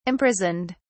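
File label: "imprisoned", British English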